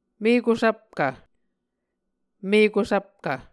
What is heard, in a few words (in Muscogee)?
"mekusvpkv", Muscogee